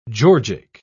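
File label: "georgic", British English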